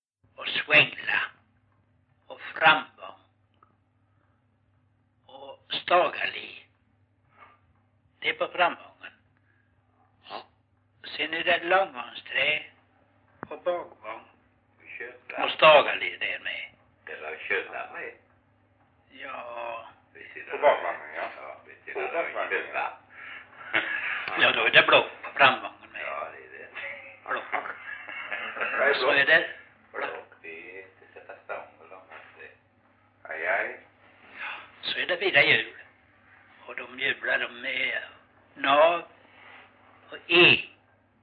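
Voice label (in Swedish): Rolfstorp Halland, dialekter; Folkminnen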